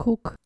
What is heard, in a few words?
"cook" dutch